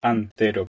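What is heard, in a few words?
"h2entero", Proto-Indo-European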